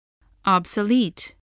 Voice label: "obsolete", voice, spelling